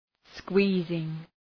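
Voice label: "squeezing", English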